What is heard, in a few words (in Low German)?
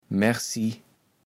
"merßi", kaevels platt